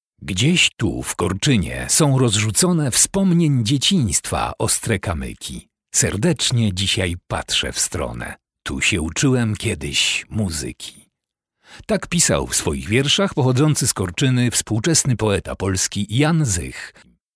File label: polish male voiceover